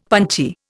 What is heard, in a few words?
"punchy", Indian; Dictionary